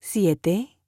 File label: Spanish Number